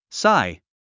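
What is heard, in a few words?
"sigh", English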